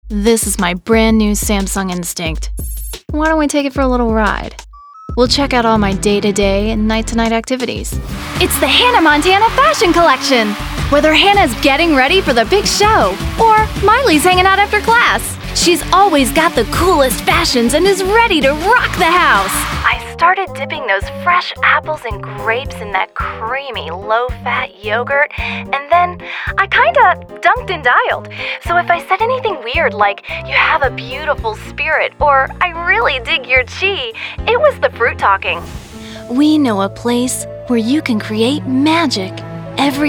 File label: commercial, voice talent; talent demos